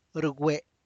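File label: tlacochahuaya, Zapotec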